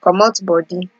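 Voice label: "comot body", Nigerian Pidgin, female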